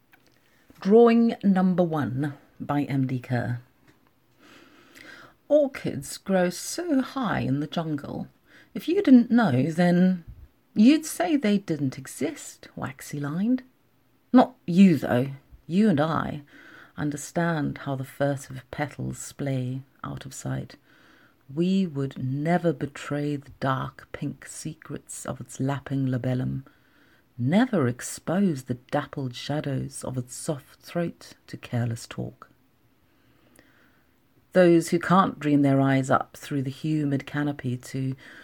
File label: Poem